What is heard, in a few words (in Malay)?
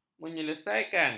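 "menyelesaikan", Malay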